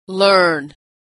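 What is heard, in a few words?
"learn", American English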